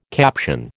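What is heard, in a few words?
"caption", American English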